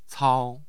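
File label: "cao", Chinese, first tone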